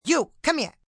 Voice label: You Come Here, Calls, attention, female; Talking; Voice Box